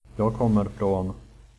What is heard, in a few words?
im from, swedish